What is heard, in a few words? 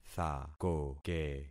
"thakoke", concat syllables; Affixing experiment